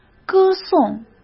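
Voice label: "歌颂" Mandarin Chinese; chinese flashcards; hsk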